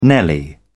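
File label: "nelly", British English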